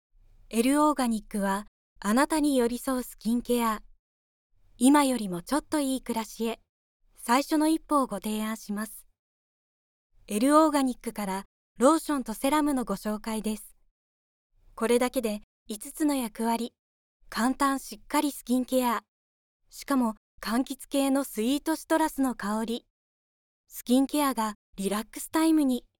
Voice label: female